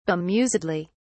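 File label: "bemusedly", British English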